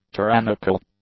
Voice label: "tyrannical", English; adjectives